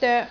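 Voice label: "doek3", Cantonese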